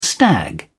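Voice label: "stag", British English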